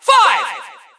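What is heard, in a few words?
"five", English; cstrike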